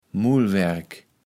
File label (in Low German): "Mullwerk", Platt